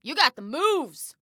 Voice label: Compliment Nice Move, Female